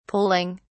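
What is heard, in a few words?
"poling", British English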